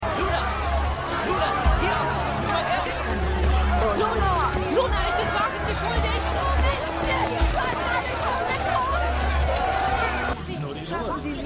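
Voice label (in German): Paparazzo Wütende Frau Gerichtsdiener, Stimmproben; LO SVU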